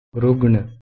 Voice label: "rugna", Sanskrit

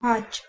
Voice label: "hatch" Armenian

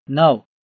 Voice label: "9", Gujarati

